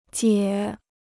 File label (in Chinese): "解", Chinese